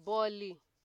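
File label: "boli" Nigerian Pidgin, female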